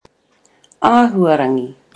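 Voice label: "ahuarangi", Māori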